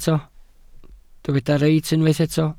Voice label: "reit", Welsh

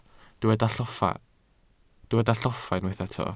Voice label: "lloffa", Welsh

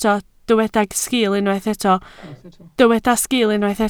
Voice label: "sgiil", Welsh

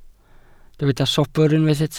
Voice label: "sobr", Welsh

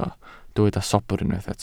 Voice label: "sobr", Welsh

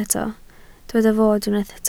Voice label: "fod", Welsh

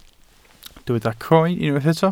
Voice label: "croen", welsh

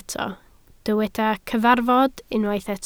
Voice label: "cyfarfod", Welsh

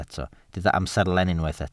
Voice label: "amserlen", Welsh

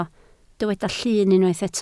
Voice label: "llun", Welsh

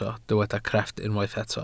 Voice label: "crefft", welsh

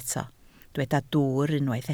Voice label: "dwwr", Welsh

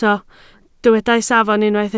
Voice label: "safon", Welsh

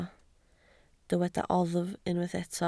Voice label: "oddf", Welsh

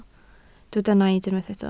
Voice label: "naid", Welsh

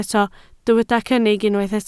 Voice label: "cynnig", Welsh

